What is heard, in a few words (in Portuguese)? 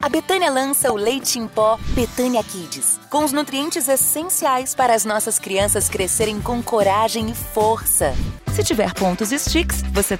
Loc Jovem Animada Locutora